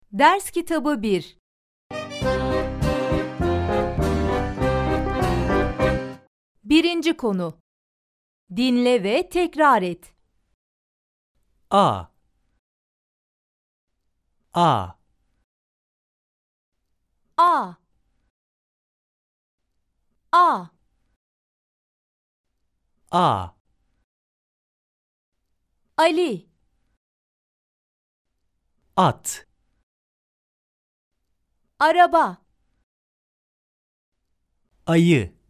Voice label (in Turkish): Turkce ornek ses